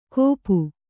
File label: "ಗೋಪು", Kannada